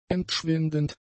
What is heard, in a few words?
"entschwindend", German; adjectives